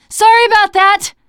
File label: sorry, teen girl; player; survivor; voice